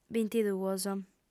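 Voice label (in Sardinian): "bintiduos", female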